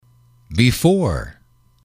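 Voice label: "before", english, male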